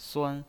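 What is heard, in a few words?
"suan", Mandarin Chinese pinyin, first tone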